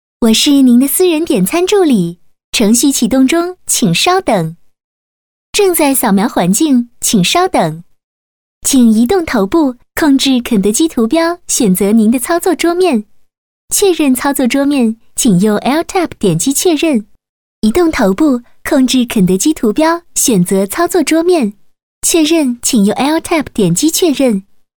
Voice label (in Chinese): 广播提示 点餐助理 亲切; kfc点餐助理